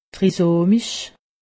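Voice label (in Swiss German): "trisomisch", Haut Rhin; medecine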